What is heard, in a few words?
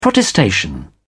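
"protestation", British English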